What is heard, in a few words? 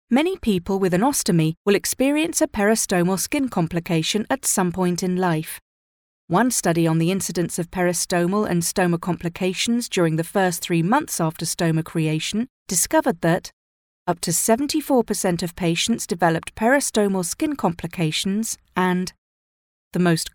elearning